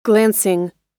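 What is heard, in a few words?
"glancing", American English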